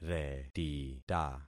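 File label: "retita", concatenated syllables; Affixing experiment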